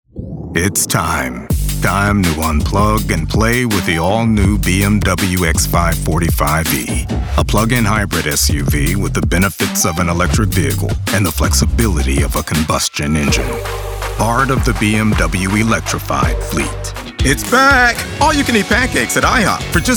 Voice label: Commercial